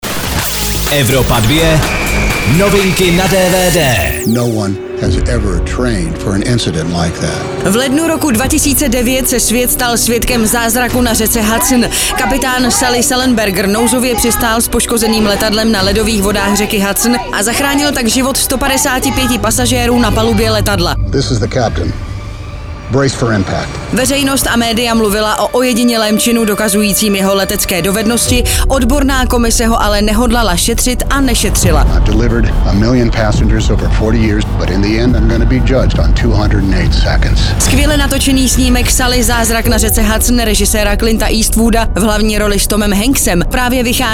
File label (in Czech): dvd news; evropa2; sully zazrak na rece hudson